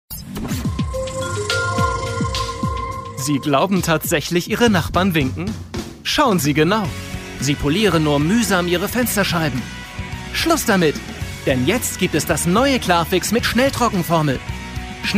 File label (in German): Werbung; Klarfix